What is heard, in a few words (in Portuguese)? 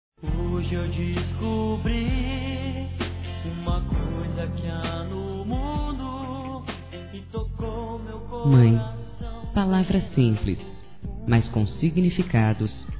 P MÃE C CARINHO F, Telemensagens, Dia das Mães; Telemensagens 2017